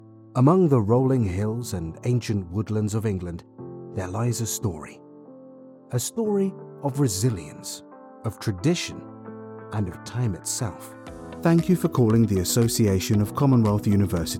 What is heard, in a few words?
Corporate Narration, British Male